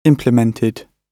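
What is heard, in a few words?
"implemented", British English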